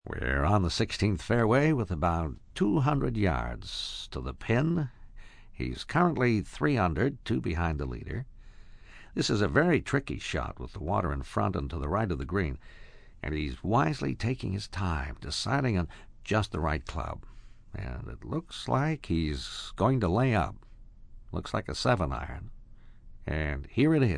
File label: Commentary Golf, Broadcast, male commentator, golf, sports, play-by-play; human voice speaking; VoiceBox